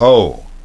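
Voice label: "vow", English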